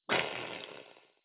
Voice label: dracula shout